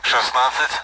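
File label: Speech Sound Russian Common AWACS Numbers; DCS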